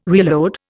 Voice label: "reload", Indian